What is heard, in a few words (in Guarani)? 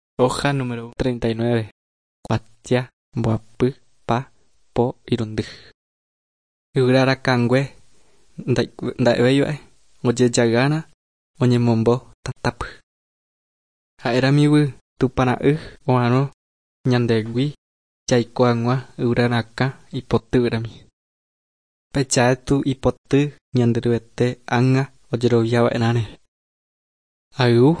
guarani mbya; good news and words of life; bible